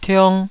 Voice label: "tiong2", taiwanese Syllables

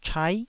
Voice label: "chai9", Taiwanese

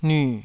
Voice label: "nyu3", taiwanese

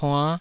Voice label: "puann5", Taiwanese

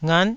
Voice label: "ngat9", taiwanese syllables

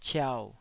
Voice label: "ciau3", taiwanese

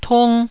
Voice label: "tung1", Taiwanese

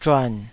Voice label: "zhuan3", taiwanese

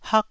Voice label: "hak1", taiwanese

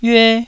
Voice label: "yue1", taiwanese Syllables

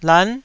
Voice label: "lan9", taiwanese

